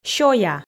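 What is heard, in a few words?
"сёя", Komi, female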